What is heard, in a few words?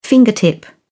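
"fingertip", British English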